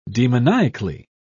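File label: "demoniacally", British English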